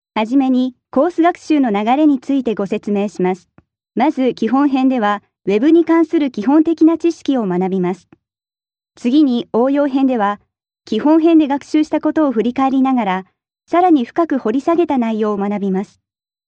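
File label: Japanese, female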